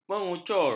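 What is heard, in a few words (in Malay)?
"mengucur", Malay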